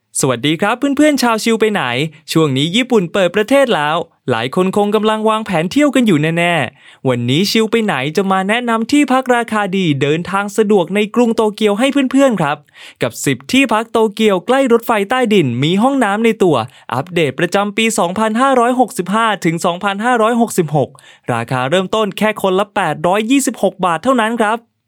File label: Thai Male Narration Friendly